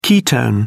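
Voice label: "ketone", British English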